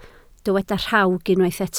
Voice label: "rhawg", Welsh

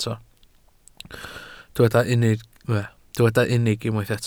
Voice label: "unig", welsh

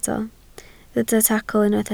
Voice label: "tacl", Welsh

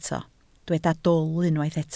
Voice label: "dwl", Welsh

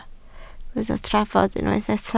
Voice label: "trafod", Welsh

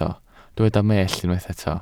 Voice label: "mellt", welsh

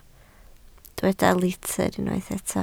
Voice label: "litr", Welsh

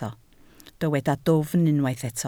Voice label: "dwfn", Welsh

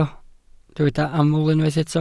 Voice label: "aml", Welsh

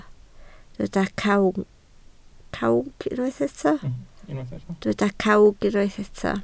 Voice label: "cawg", Welsh

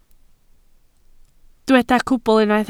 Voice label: "cwbl", Welsh

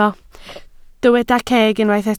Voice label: "ceg", Welsh

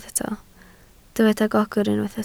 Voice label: "gogr", Welsh

